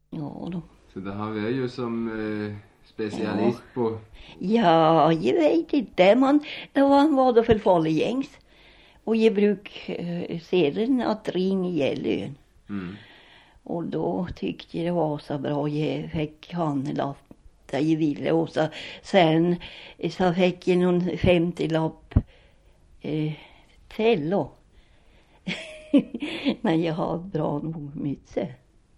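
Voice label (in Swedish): Revsund Jämtland, dialekter; Folkminnen; Svenska sagor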